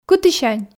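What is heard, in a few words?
"кытісянь", Komi, female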